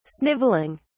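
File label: "sniveling", British English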